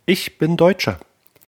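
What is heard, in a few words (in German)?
ich bin deutscher, German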